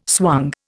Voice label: "swung", Indian